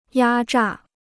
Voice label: "压榨", Chinese

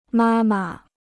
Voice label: "妈妈", Chinese

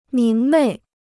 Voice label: "明媚", Chinese

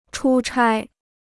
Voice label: "出差", Chinese

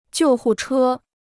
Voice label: "救护车", Chinese

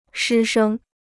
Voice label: "师生", Chinese

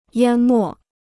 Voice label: "淹没", Chinese

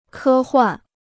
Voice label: "科幻", Chinese

